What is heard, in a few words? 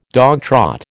"dogtrot", American